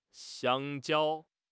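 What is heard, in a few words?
"xiang1 jiao1", Mandarin Chinese; HSK3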